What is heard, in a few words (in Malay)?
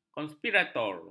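"konspirator", Malay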